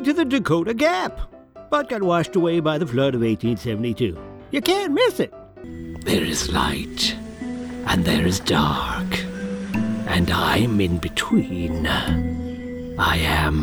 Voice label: Character

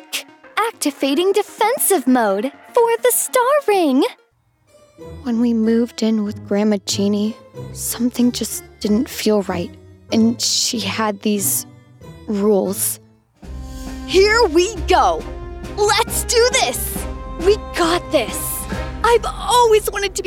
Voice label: teen character

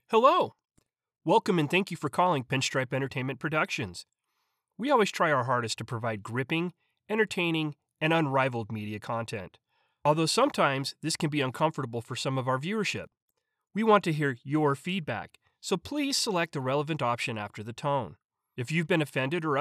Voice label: Telephone IVR

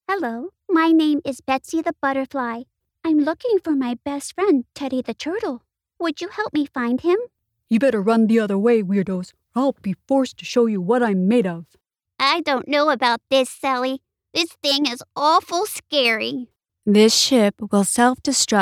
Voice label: Character Voices

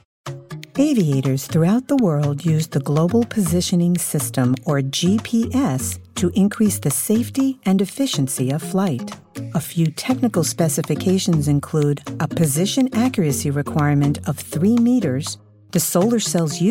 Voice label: GPS Narration

